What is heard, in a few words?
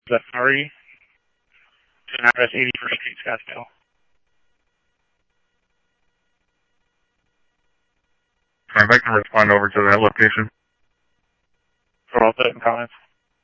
Police, scanner; SCTSDLE PD - PD1; H-Scottsdale; ScottsdalePolice